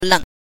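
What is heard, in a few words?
"leng", Mandarin Chinese pinyin, neutral tone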